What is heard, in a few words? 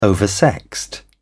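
"oversexed", English, British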